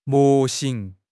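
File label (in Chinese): "模型", Chinese; HSK6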